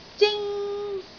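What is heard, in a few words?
"zing1", Cantonese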